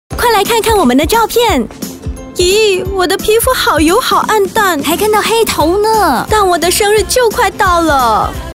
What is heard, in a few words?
Mandarin Announcer, Style: Sensational & Confident; Rexona